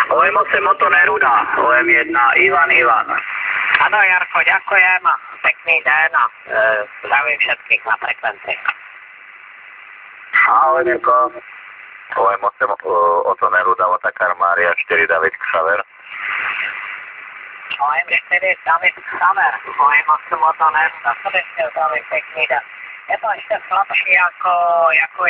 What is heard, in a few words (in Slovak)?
5MHz SSB spojenie